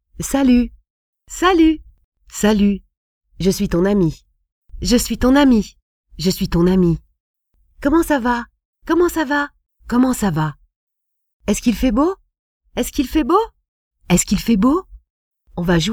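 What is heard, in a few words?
French woman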